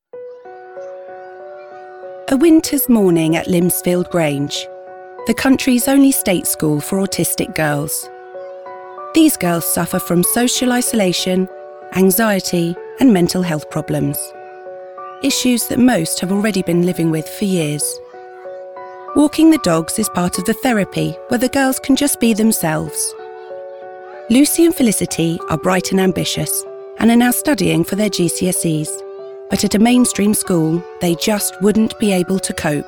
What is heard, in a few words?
english british documentaries